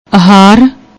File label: "ahharr", Punjabi; ਅਹਾਰ